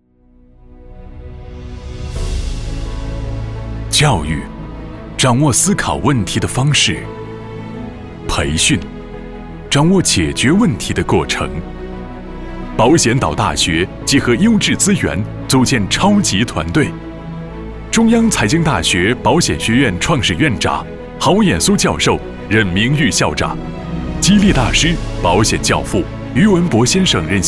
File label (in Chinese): 专题 学校 浑厚; 保险学校